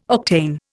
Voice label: "octane", Indian